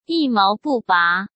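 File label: "一毛不拔", Mandarin Chinese; chinese-flashcards; hsk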